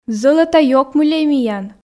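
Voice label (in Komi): зӧлӧта ёкмыльӧй миян, Komi, female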